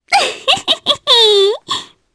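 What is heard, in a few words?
Vox Happy Japanese; Reina; kingsraid; voices heroes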